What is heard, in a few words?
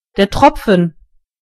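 "drip", German; gcompris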